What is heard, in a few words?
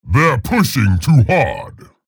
vo chatter negative; respawn; scalebearer